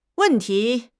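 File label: "wen4 ti2", Chinese; HSK; HSK2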